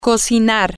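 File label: to cook, spanish, female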